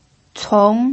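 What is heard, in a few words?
"cong" second tone, Mandarin Chinese pinyin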